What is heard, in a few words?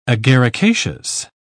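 "agaricaceous", British English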